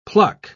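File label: "pluck", English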